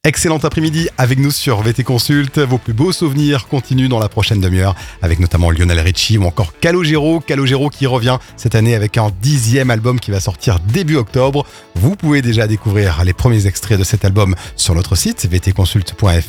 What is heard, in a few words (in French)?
adulte